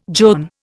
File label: "john", Indian